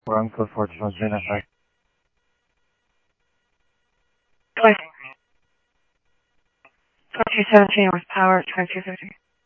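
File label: police radio scanner traffic, Mesa Simulcast; MESA PD Superstn; MesaPoliceDep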